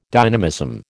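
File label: "dynamism", American English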